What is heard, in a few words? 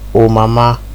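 "old mama", Nigerian Pidgin, male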